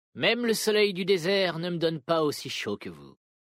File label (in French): Homme Flirt; Vulpérins; Soleil